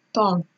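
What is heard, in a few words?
"turn", Nigerian Pidgin, female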